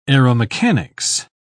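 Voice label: "aeromechanics", British English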